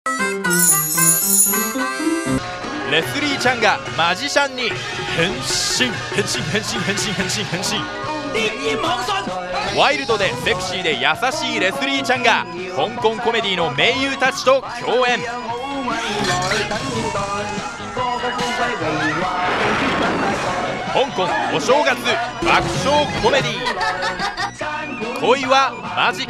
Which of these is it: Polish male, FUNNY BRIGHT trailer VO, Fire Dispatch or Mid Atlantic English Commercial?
FUNNY BRIGHT trailer VO